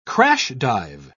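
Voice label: "crash-dive", English, British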